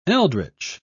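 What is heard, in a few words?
"eldritch", British English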